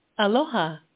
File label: Hawaiian